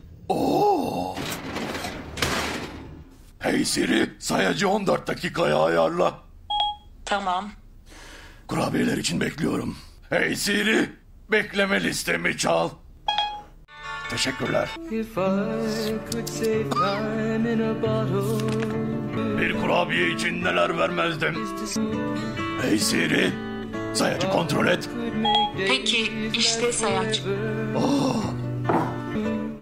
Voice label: Ad